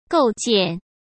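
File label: "构建", Mandarin Chinese; hsk; chinese-flashcards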